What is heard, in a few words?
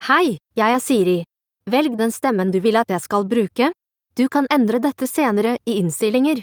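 Norwegian Bokmål, Norway; iOS 26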